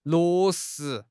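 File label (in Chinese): "落实", Chinese; HSK; HSK6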